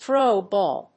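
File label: "throw a ball", English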